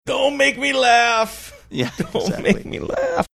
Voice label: saying dont make me laugh pained

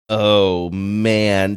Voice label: drawn out oh man

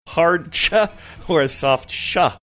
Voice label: hard chuh or soft shuh

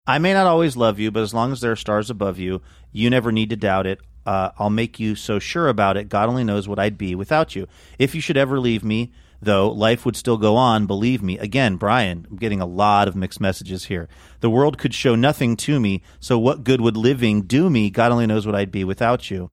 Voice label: reciting and commenting lyrics

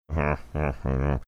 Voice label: mumbling

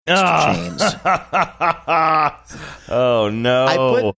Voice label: laughing gruffly and saying oh no

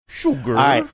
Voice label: saying sugar funny

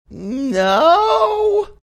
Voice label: no funny drawn out manner

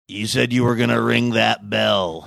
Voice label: you said you were gonna ring that bell, gruff

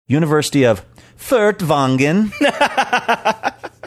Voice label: university furtwangen german accent

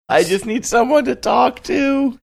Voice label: saying i just need someone to talk to funny

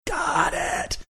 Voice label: whispering; got it

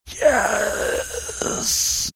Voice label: yes funny drawn out manner

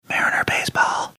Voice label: whispering mariner baseball

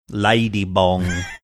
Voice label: saying lady bong australian

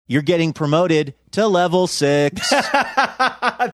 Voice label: you're getting promoted level six, sing songy

